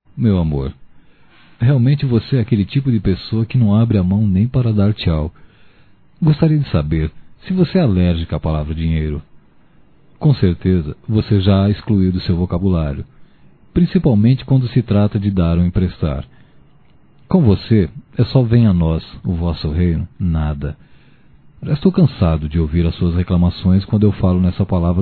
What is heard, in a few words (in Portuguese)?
Mulher Pão Dura Masculino; Telemensagens 2017